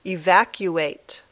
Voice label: "evacuate", English